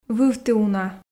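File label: "вывті уна", Komi, female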